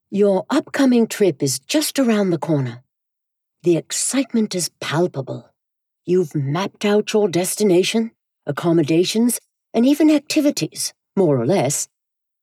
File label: Accents English